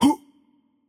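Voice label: Greek attack light voice; bloom minigame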